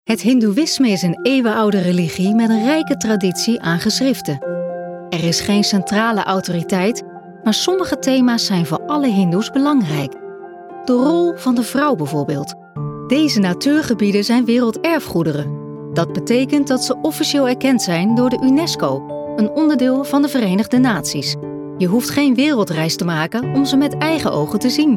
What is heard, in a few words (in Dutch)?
Narratief